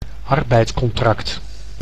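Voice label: "arbeidscontract", Dutch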